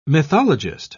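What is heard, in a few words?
"mythologist", British English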